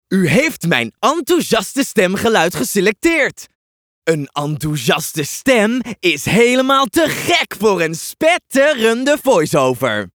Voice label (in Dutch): Enthousiast